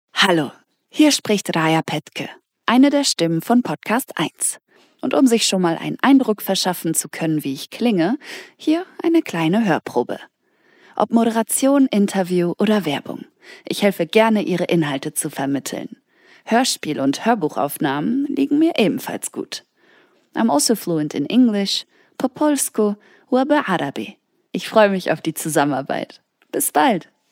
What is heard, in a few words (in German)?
Hoerprobe, podcast moderator; Stimmen, die Geschichten lebendig machen; podcast-eins